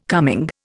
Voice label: "coming", Indian